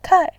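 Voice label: "kai4", Mandarin Chinese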